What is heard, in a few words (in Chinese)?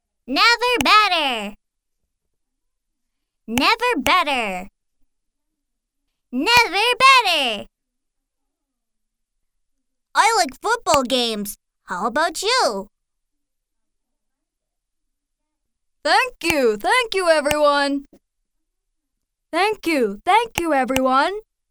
美式英语 童声, 外语